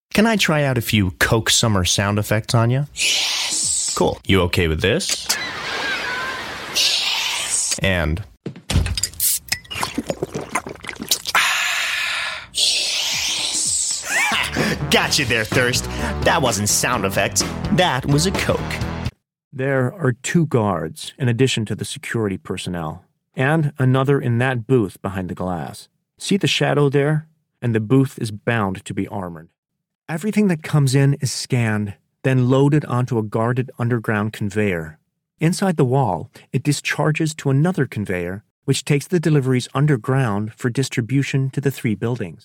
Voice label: accent, USA standard